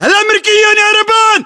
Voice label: threat infantry exposed, battle chatter, english

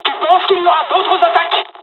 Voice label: dialog, dialogs french; midway; War Thunder

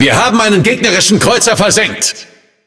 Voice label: Speech Destroy Enemy Cruiser, DE; World Of Warships

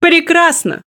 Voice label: Speech Quick Commands Good Game

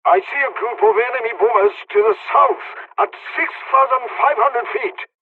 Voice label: dialogs polish; War Thunder

